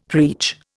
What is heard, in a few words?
"preach", Indian; Dictionary